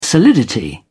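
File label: "solidity", English, British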